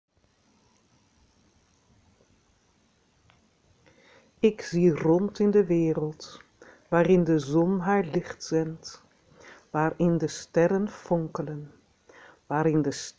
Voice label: Morgenspruch Holland Dutch; Vrije School Den Haag

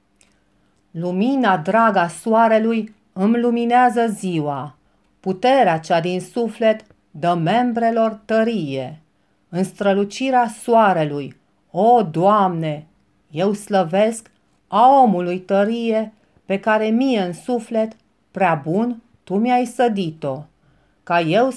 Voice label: Morgenspruch rumaenisch; Rumaenien

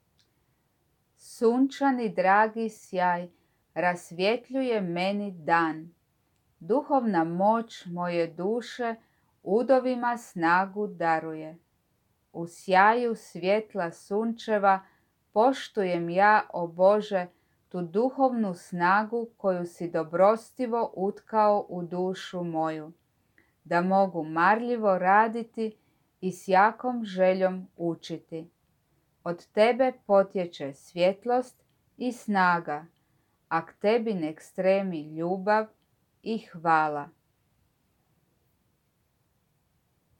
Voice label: Morgenspruch Kroatien kroatisch; Waldorfska Skola U Zagrebu

